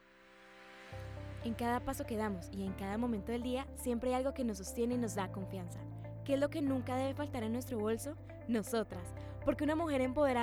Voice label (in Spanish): cuña